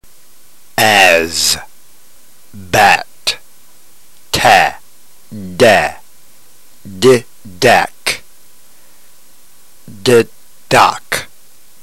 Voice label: drill, ntgreek